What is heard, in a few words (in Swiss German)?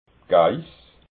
"geiss", alsace